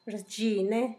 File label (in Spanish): "voz", Zapoteco, San Pedro Martir; Objetos; INALI